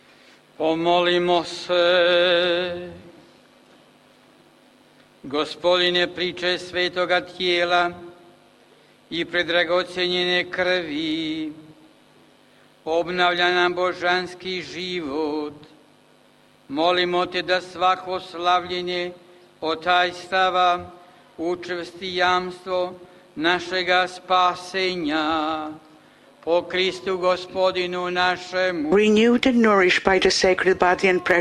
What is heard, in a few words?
Prayer and Announcement